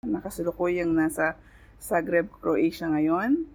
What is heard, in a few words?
tagalog; POP UP Zagreb